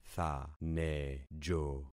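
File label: "thanejo", concatenated syllables; Affixing experiment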